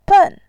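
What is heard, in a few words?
"ben", Mandarin Chinese, tone 4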